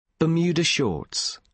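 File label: "bermuda shorts", British English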